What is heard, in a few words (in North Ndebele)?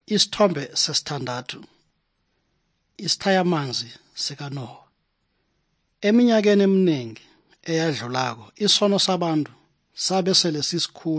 good news ndebele southern, drama